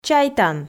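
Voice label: "чайтан", Komi, female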